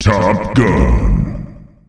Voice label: Top Gun, announcer male; Unreal Tournament; ut2004

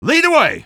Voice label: lead the way, male; Half-Life 2

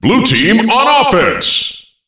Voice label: blue team on offence, Announcer Voice Lines; Unreal Tournament; ut2003

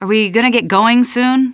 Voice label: get going soon, female; Half-Life 2

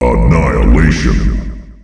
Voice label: SKAARJ annihilation, announcer male; Unreal Tournament; ut2004

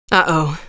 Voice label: uh oh; nova prospekt

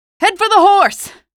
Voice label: horse, male; Half-Life 2; streetwar; rubble

